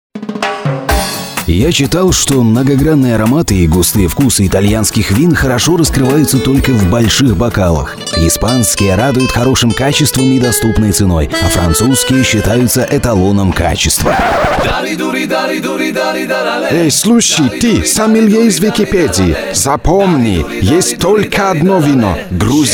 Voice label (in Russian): gruzin